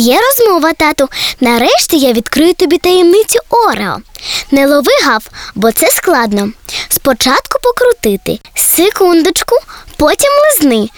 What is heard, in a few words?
girl vo